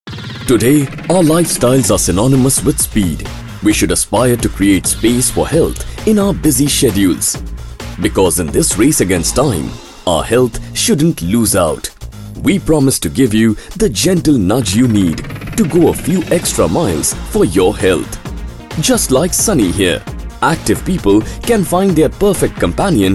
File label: Corporate AV English; ABH Active Health